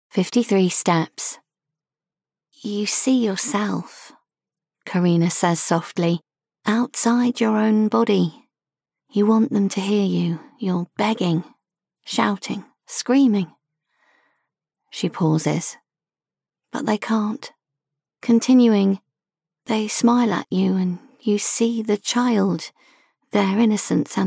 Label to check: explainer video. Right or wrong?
Wrong